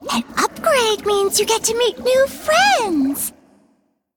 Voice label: Female; Brew Elemental; After Shop Upgrade